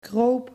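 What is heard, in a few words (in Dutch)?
"kroop" Dutch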